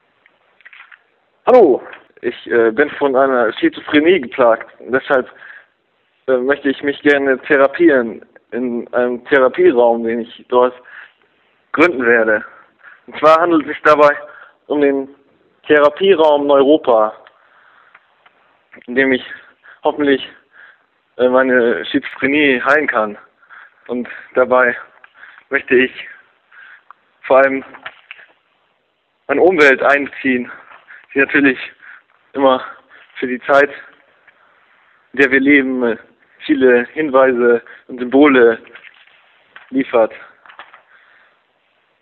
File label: Voicemail